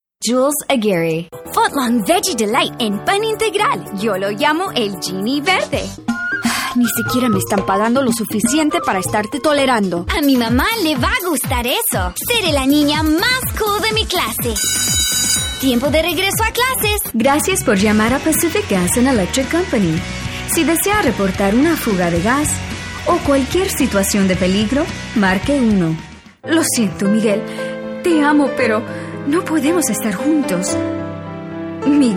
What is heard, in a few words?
Spanish VO Reel